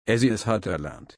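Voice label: "erlernen", German, slow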